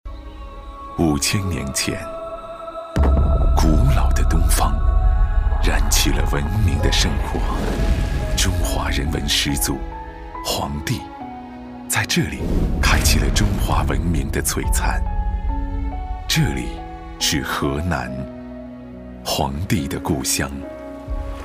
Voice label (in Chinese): 国语男, 专题片 政府 宣传片; 外交部河南全球推介活动宣传片